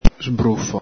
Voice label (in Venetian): "sbrufo", Veneto; spray, sprinkle; el Galepin; spruzzo